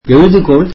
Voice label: tamil pencil